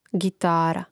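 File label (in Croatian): "gitara", Croatian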